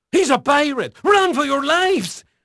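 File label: Portuguese male, voice; Pirates Of The Caribbean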